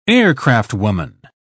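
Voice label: "aircraftwoman", British English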